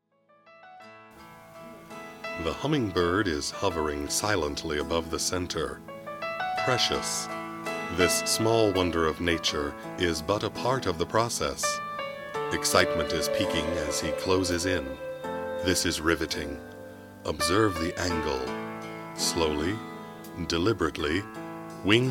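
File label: narration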